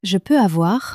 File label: "je peux avoir", french; flashcards